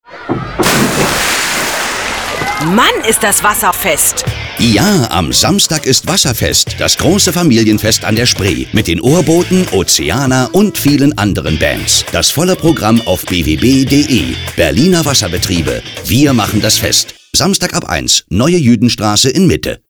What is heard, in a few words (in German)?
Hoerfunkspot; BWB 2009